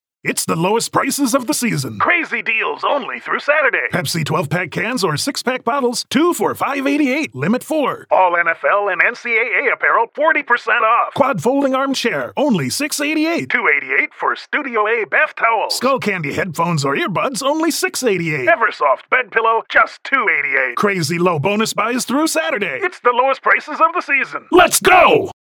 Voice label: hardsell commercial